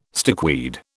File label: "stickweed", British